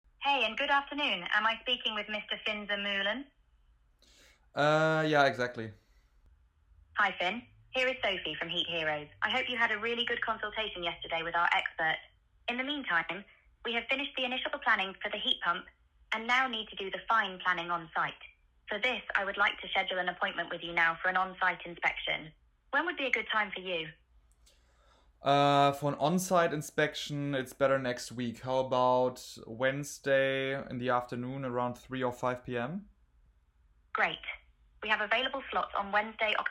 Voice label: Booking English

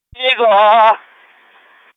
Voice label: race voice; toyota; superformula

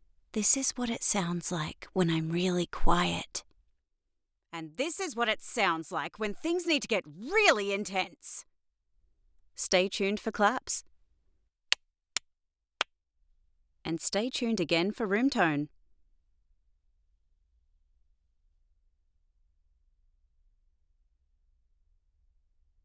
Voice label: VO Booth Raw Sample Sydney

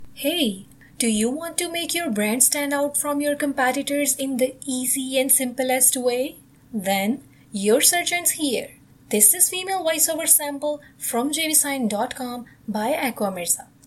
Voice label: Real English Female Voiceover; Jvesign

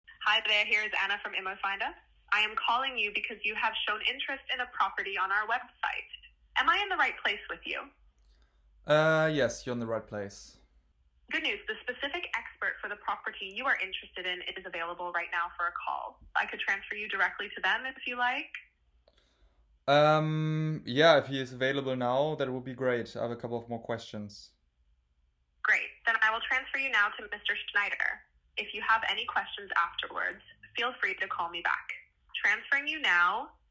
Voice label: English

